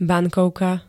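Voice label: "bankovka", Slovak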